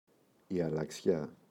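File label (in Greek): "αλλαξιά", Greek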